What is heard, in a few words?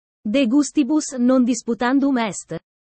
italian sayings about life